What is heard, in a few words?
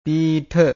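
"piitha", Pali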